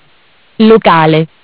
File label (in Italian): "Locale", MESSAGGI VOCALI; Bentel; BLE320; Audio BGS220